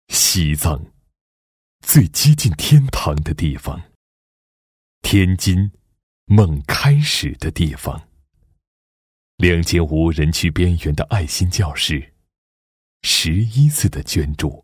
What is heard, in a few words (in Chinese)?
男 公益慈善 感情融入, 国语男声配音